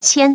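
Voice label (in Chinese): "thousand", Chinese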